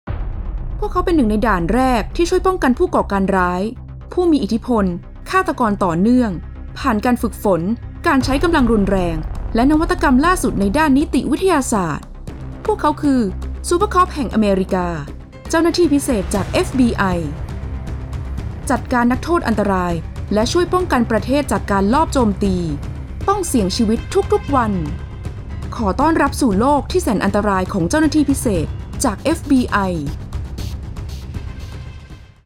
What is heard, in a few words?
thai female narration